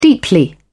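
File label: "deeply", British English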